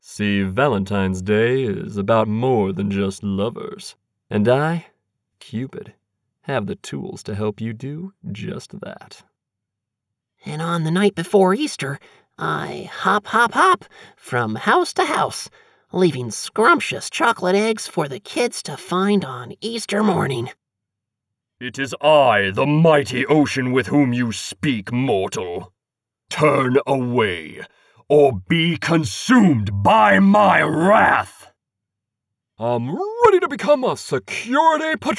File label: Animation Samples